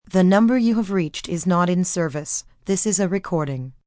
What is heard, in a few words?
Not In Service, Telephone operator, female, recorded; VoiceBox